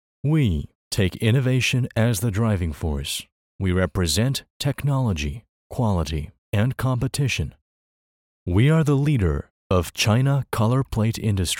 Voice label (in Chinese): 宣传片英语配音; 天津市新宇彩板有限公司